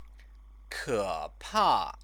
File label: "kepa", Chinese; 可 怕; frightfully; adverb